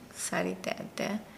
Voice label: Nukuoro; perspire